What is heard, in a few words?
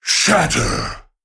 Male Golem Attack